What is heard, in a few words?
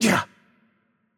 VO Hurt Light, cs CZ; bloom minigame